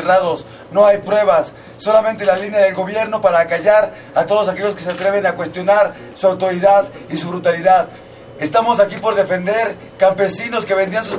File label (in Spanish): hombre delitos; presas del penal de santiaguito